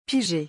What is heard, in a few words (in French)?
"piger", French; pronunciation; hard and soft vowels